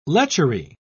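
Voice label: "lechery", British English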